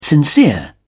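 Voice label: "sincere", British English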